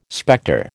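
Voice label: "spectre", American English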